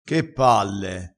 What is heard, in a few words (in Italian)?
"che palle", crespinese